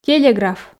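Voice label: "телеграф", Komi, female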